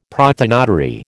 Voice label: "prothonotary", American